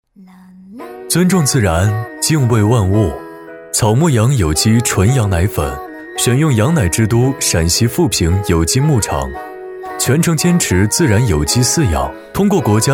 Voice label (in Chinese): 男 品质广告 温馨自然 有机羊奶粉